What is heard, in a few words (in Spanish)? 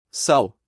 Sal en portugues